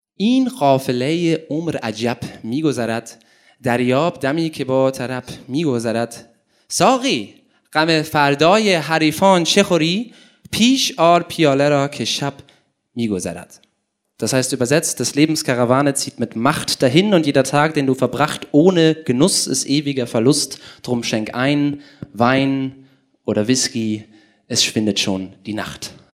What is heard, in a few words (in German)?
Persisches Gedicht